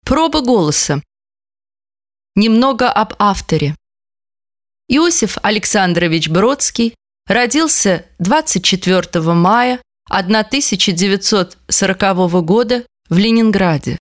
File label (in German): Russland W